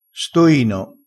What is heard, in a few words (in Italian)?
"stoino", crespinese